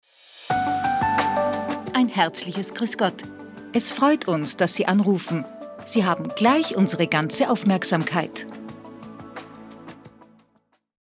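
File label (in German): Sprecherin Hörprobe; Telefonansage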